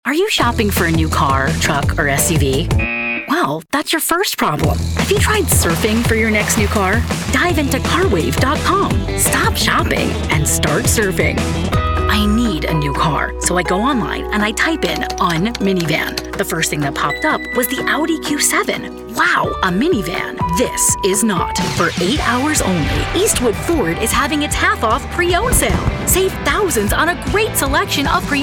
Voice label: english american automotive

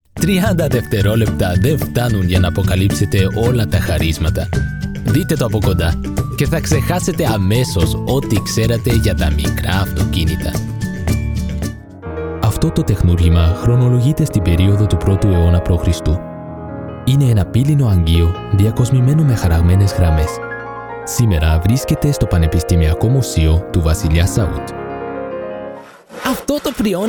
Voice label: greek commercial

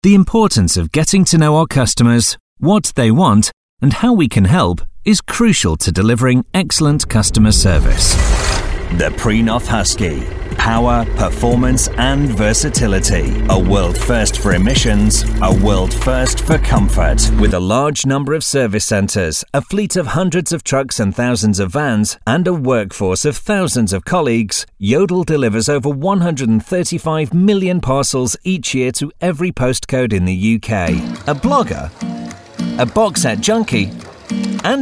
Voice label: English British narration

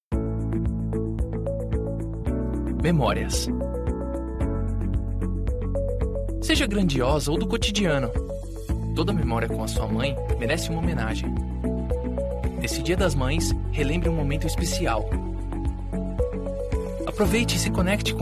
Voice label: portuguese brazilian documentaries

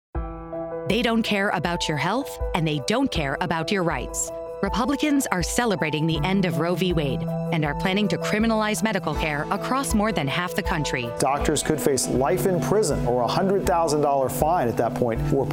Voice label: english american political ads

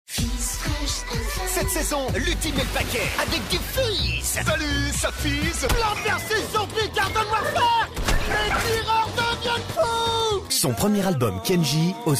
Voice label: french switzerland commercial

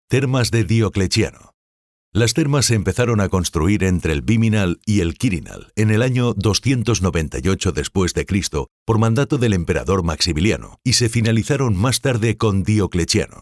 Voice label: spanish e-learning

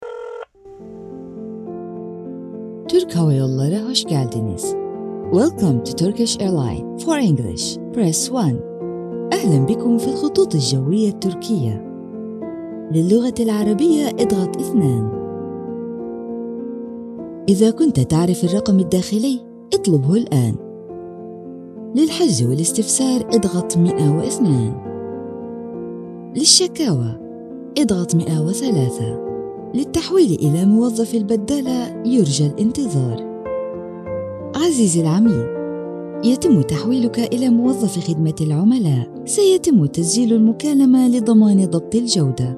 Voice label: arabic ivr

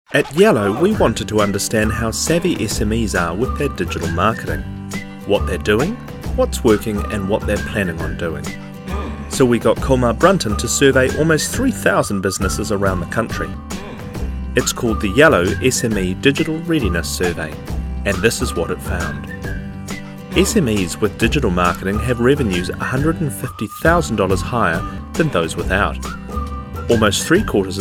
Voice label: english new zealand corporate videos

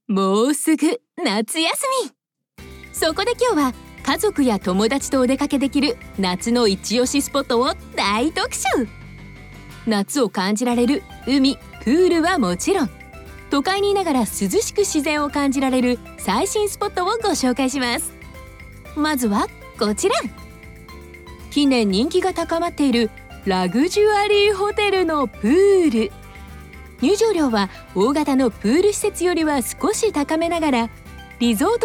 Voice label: japanese podcasts

